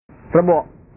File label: "rebo", bahasa